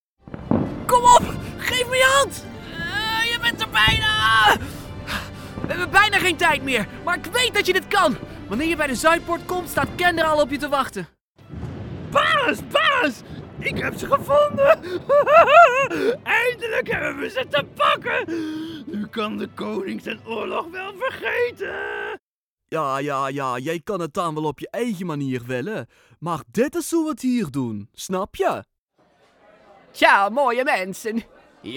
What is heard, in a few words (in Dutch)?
dutch video games